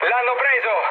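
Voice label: Italian aircraft destroyed mood low, speech; War Thunder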